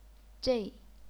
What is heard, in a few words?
"zhei4", chinese pinyin